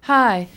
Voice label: hi, female; Voice; expletives

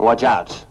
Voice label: "watch out", male; Voice

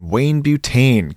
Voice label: male; Voice drops

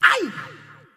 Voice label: "ay", voice

